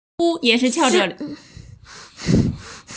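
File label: "zhi zhu", Mandarin Chinese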